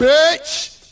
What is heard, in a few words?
"bitch", male; Voice